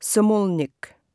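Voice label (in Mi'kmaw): "smulnik", Mi'kmaq; wowkwis aqq kaqaquj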